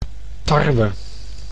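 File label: "tarwe", Dutch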